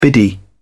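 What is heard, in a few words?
"biddy", English, British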